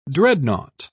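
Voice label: "dreadnought", British English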